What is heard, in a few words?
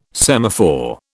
"semaphore", British